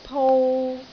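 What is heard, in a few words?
"pou3", Cantonese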